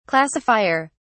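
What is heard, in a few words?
"classifier", English, uk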